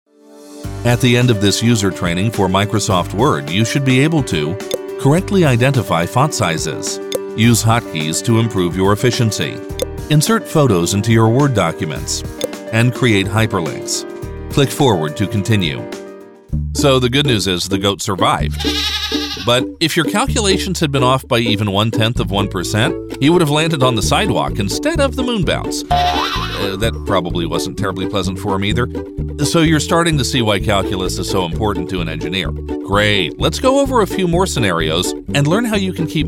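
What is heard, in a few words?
American English elearning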